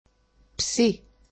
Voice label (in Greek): "psi", greek; ψι